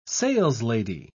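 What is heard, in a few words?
"saleslady", British English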